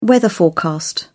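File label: "weather forecast", British English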